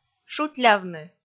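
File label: "шутьлявны", Komi, female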